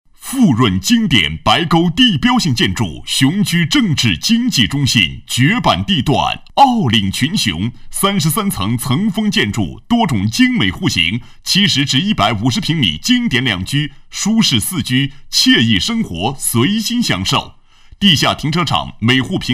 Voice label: 地产 成品样,男; 干音; 新闻广告专题颁奖

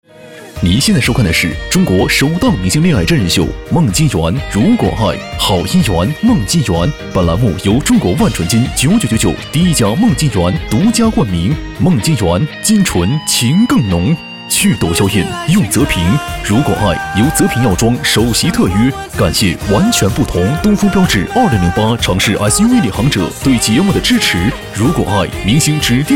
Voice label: 男 广告 综艺片花 轻快 时尚

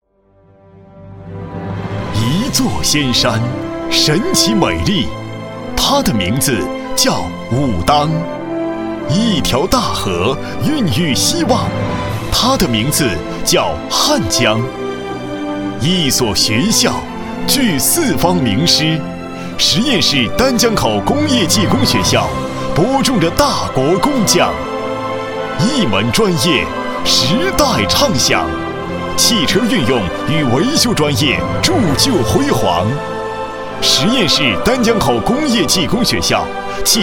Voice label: 男 专题, 国语男声配音; 汽修驾校

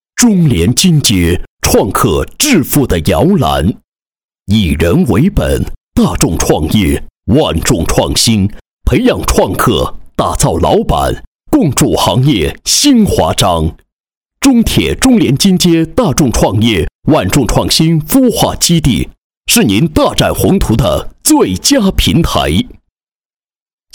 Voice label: 男 企业; 中联金街 创客致富的摇篮创新孵化基地

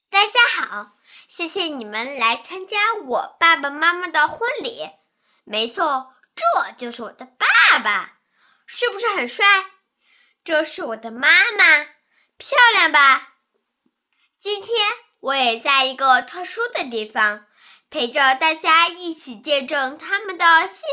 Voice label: 女, 婚礼女童音

